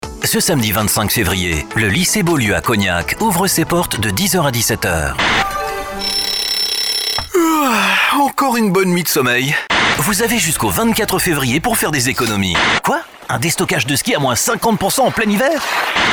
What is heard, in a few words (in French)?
Medley Campagnes Régionales - Tons Dynamiques, Radio